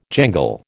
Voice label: "jangle", American